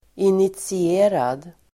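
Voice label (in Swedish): "initierad", Swedish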